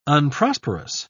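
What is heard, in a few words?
"unprosperous", British English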